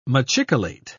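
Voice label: "machicolate", British English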